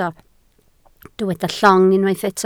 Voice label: "llong", Welsh